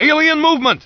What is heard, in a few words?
alien; svencoop; fgrunt